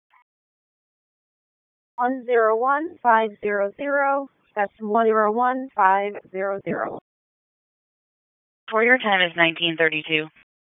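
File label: Police Southwest District Dispatch; Raleigh; wake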